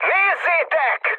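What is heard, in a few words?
Hungarian enemy detected mood high, speech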